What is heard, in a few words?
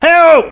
Help, male, voice commands; svencoop